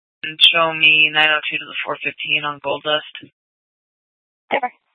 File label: Dispatch; Scottsdale